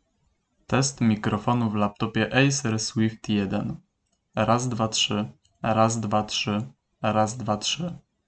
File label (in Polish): Test mikrofonu Acer Swift